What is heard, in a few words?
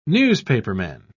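"newspaperman", British English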